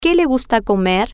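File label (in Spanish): Que Le Gusta Comer, spanish, female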